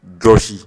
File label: "josi", Bambara